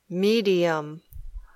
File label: "medium", English